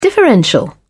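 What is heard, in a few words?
"differential", British English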